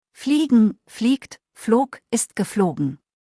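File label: "fliegen", German; verben kurzliste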